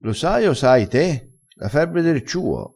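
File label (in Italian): "la febbre", vocabolario dialettale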